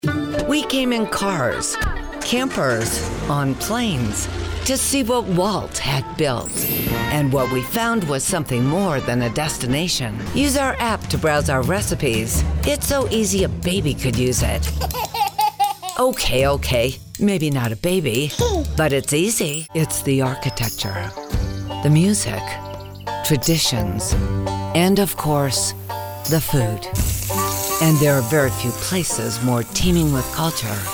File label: Commercial